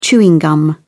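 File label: "chewing gum", British English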